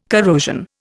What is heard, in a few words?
"corrosion", Indian